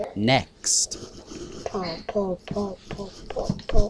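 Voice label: "pump", spoken word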